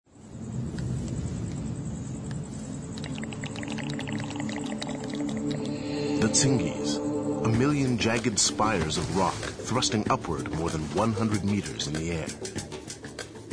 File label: Voice Demo